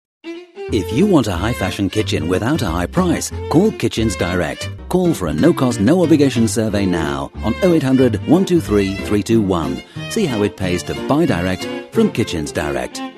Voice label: Commercial